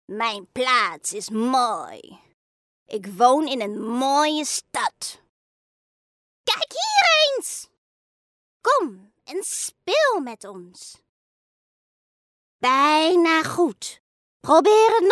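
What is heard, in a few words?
Dutch female children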